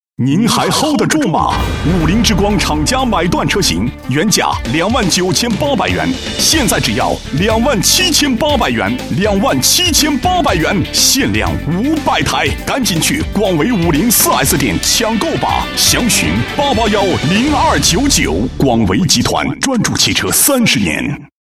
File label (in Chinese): 男 广告; 五菱之光